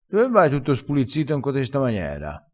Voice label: "spulizzito", vocabolario dialettale

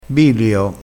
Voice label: "bilio", crespinese

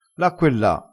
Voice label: "n du", crespinese

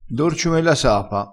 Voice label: "dorce ome la sapa", crespinese

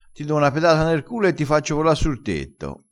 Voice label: "pedata", crespinese

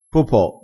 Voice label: "popo", crespinese

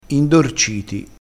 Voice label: "indorciti", dialettale crespinese

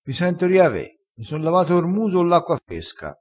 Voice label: "riave", crespinese

